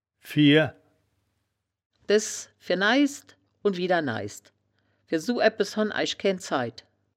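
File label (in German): "fier", wittlicher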